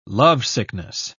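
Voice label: "lovesickness", British English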